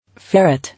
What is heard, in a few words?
"Ferret", English